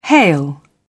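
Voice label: "hail", British English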